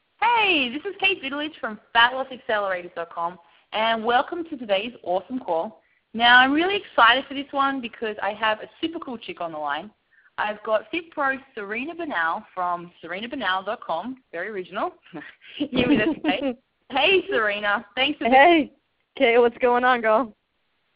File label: interview